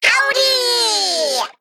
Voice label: Male Mech Attack